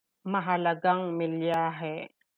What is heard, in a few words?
tagalog